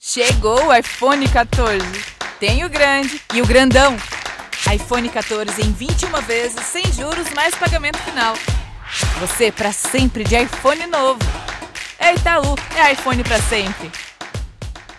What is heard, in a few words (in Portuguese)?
ad energy young female brazilian; portuguese; itau; iphoneprasempre